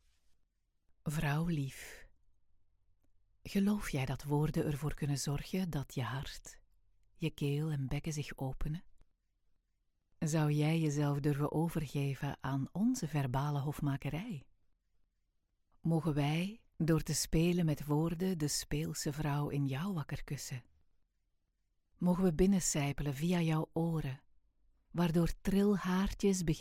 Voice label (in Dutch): Fluisterfestival